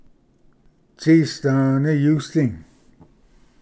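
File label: "tsistvi iyusdi", Cherokee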